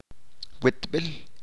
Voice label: "Posada hospedaje", Chalchiteko

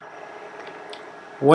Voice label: "fruit", Mokilese

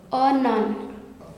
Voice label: female animal; Gutob; Animals

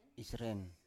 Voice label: "isren", Biak

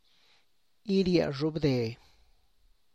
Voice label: "iria xhub de", Tlacochahuaya Zapotec; select infested corn

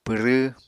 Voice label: "pwrw", Apatani

